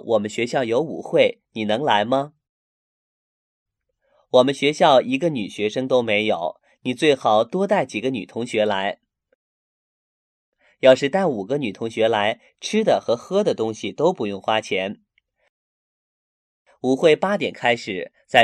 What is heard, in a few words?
telephone message